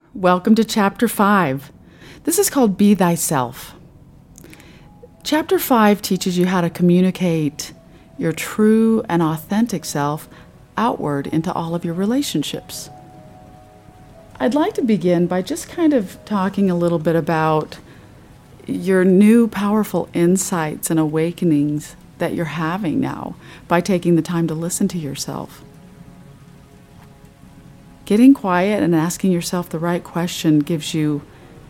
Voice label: audiobook